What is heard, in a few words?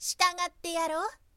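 girl, "sitagatteyaro"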